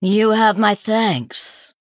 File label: female sanlayn, Emote Thanks